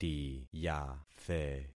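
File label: "tiyathe", concatenated syllables; Affixing experiment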